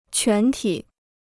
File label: "全体", Chinese